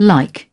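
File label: "like", British English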